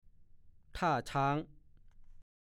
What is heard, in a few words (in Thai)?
"ท่าช้าง", ภาษาโคราช